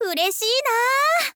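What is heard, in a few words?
ureshii naa, Japanese, girl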